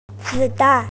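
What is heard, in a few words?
"zi3dan4", Mandarin Chinese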